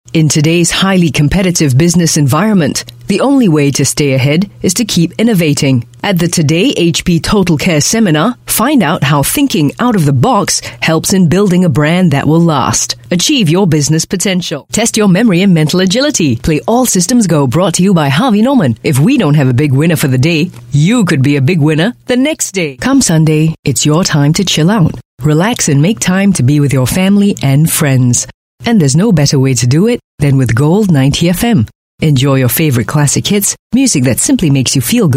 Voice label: Asian English Commercial Reads VO